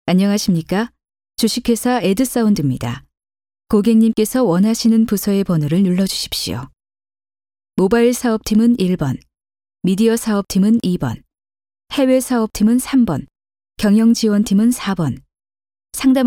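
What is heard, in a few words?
Korean, male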